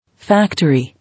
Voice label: "Factory", English